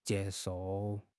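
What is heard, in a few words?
"jie1 shou4", Chinese; HSK; HSK4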